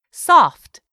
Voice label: "soft", English; 3000 words

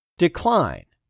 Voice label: "decline", English; 3000 words

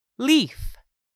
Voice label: "leaf", English; 3000 words

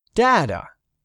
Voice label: "DATA", english; 3000 words

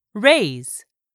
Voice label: "RAISE", English; 3000 words

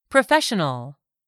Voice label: "PROFESSIONAL", English; 3000 words

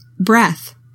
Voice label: "BREATH", English; 3000 words

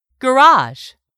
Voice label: "garage", English; 3000 words

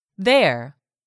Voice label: "there", english; 3000 words

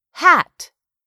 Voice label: "hat", English; 3000 words

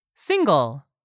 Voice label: "single", English; 3000 words

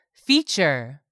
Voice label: "FEATURE", english; 3000 words

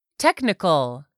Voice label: "technical", English; 3000 words

